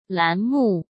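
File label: "栏目", Mandarin Chinese; chinese-flashcards; hsk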